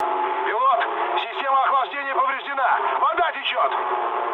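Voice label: Russian damaged water mood low, speech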